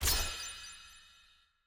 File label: gameplay end banter